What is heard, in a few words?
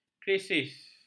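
"krisis", Malay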